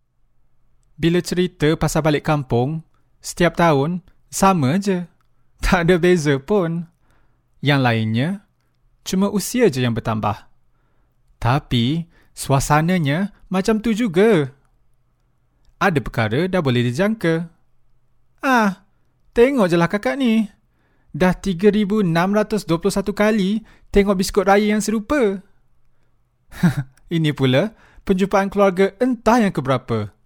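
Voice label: Malay male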